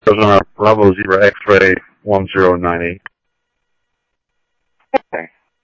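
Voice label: police radio dispatch, Scottsdale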